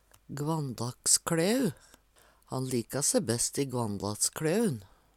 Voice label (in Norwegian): gvanndakskLæur, Numedalsmål